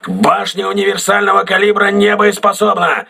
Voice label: auxiliary caliber turret damaged, crew ships russian